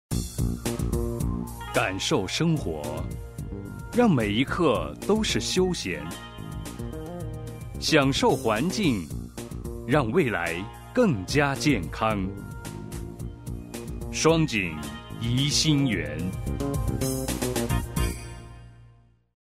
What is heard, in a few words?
foreigner